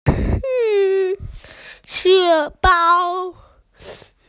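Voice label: "panda", Mandarin; xiong2mao1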